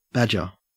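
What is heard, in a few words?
"badger", Australian English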